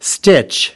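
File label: "stitch", English word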